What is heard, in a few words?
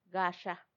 "gaxa", whereever; donde sea